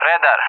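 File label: "radar", English, Russia; DCS; Allied Flight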